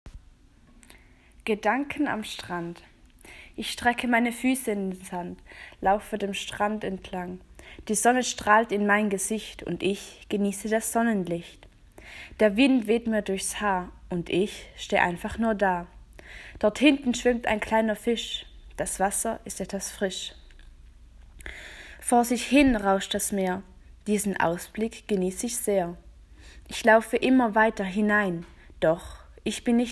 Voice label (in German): poetry slam